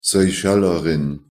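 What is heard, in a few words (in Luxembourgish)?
"Seychellerin", Luxembourgish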